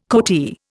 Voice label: "coatee", Indian